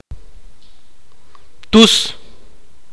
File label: "mentir", mopan